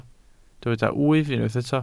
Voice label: "wyf", Welsh